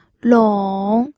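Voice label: "long3", Mandarin Chinese; pinyin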